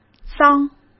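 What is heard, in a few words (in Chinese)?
"脏", Mandarin; chinese flashcards; hsk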